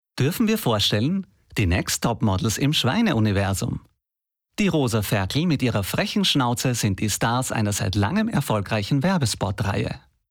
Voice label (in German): Sprachprobe TV Tiermagazin